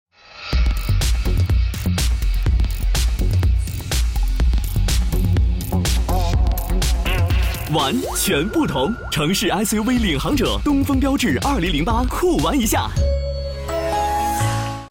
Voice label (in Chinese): 男, 年轻; 东风标致